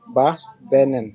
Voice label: goodbye, wolof